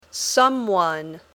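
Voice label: "someone", English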